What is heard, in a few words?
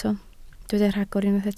"rhagor", Welsh